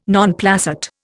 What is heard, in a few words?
"non placet", Indian